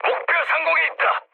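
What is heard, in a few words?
Korean near waypoint mood medium; War Thunder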